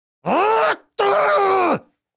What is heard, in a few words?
Speech Quick Commands Caramba; World Of Warships